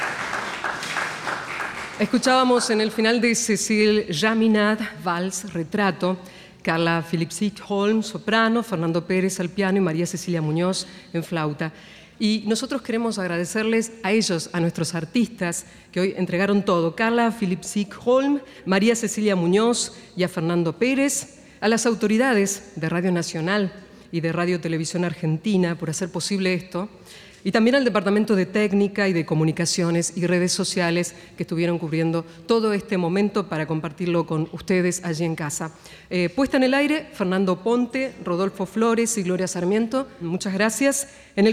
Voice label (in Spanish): locutora